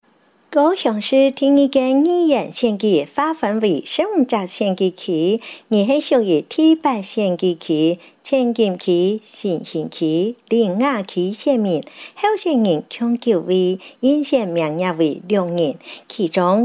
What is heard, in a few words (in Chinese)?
客語; 高雄市; 高雄市市長議員有聲選舉公報; 第八選區